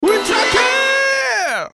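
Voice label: ultrakill, Korean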